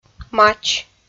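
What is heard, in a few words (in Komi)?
"мач", Komi-Zyrian, female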